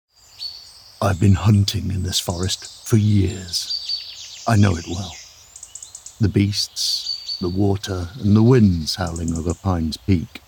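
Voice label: voiceover narrative character hunter, British character voice over; character voices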